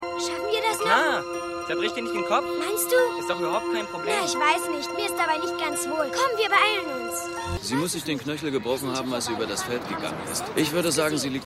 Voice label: Junge; Outer Limits

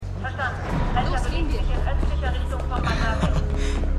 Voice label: Funkstimme; Stimmproben; Arrow

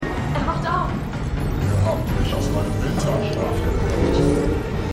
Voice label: Frau Film Mann Film; Succession

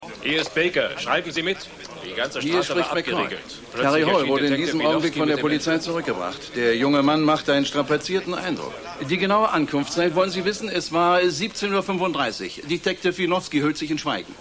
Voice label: Reporter, Stimmproben; Baker; McCoint

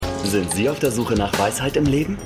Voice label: Werbesprecher; AmericanCrimeStory

